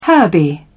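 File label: "herby", British English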